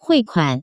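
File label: "huikuan", Taiwan Mandarin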